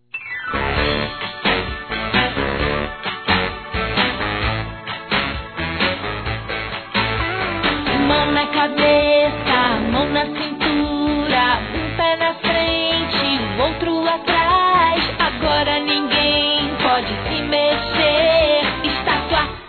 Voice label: Aniversário Sobrinho Feminino; Aniversário Infantil; Telemensagens

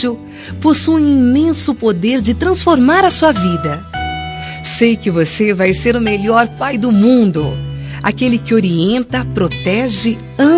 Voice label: NASCIMENTO PARA QUEM SE TORNOU PAI FEM

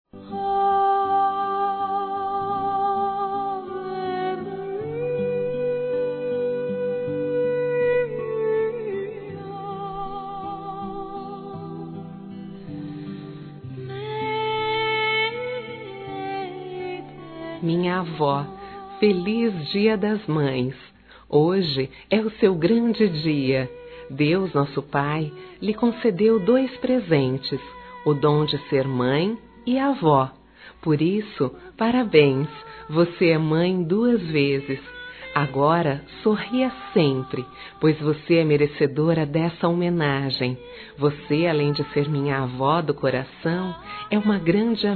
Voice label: AVÓ RELIGIOSA F; Telemensagens 2017

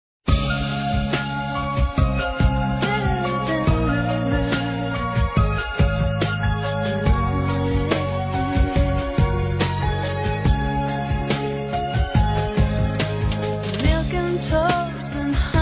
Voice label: NOVO AMOR, M, Telemensagens, Amor, Masc

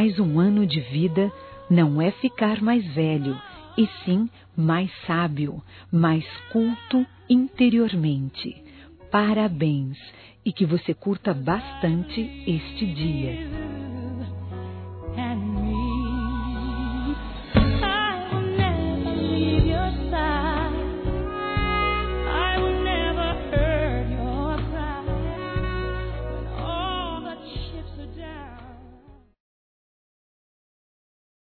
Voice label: CUNHADO (F)

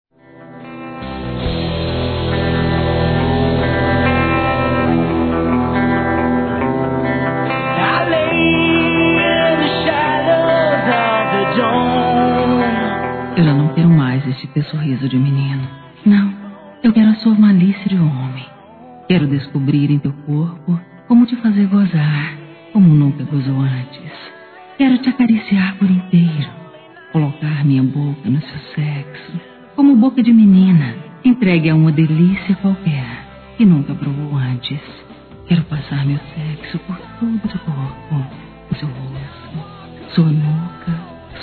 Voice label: Erótico Feminino; Telemensagens; Sensuais

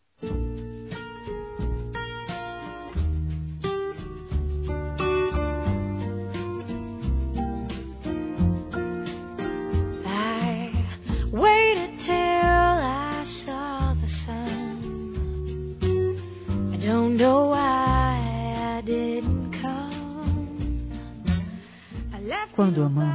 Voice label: Parabéns pelo Noivado Feminino; Telemensagens; Telemensagens 2017

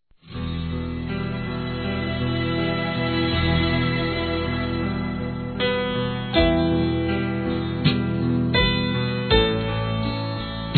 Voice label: EVANGÉLICO GERAL, Telemensagens Gospel masc

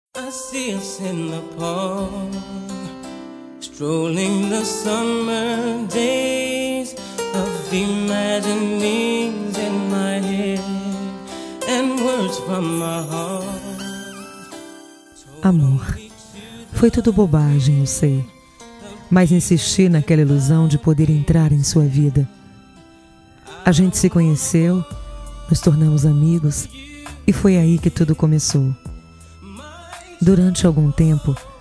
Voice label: Telemensagens, Dia Dos namorados, fem; AINDA TE AMO; Telemensagens 2017

